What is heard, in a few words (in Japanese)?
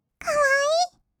かわいい, kawaii voice; ASMR; 色々なワンショット